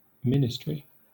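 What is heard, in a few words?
"ministry", British English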